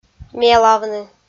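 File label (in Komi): "мелавны", Komi, female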